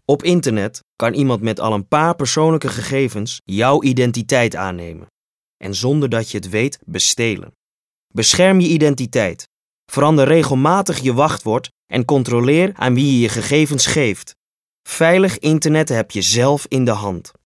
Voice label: NL Voice Commercial